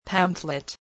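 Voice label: "pamphlet", English word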